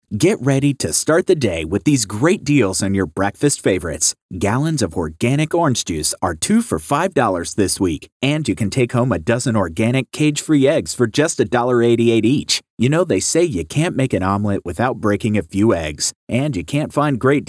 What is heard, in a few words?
Sincere